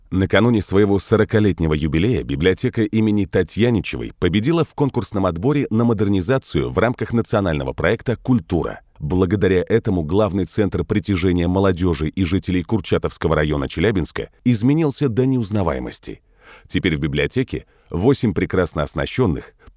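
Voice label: Russian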